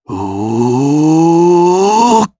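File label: Casting, Japanese; Shakmeh; kings raid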